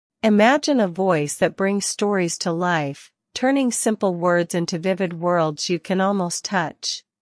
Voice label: en-us; jenny neural